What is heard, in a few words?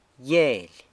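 "Gyeily", San Lucas Quiaviní Zapotec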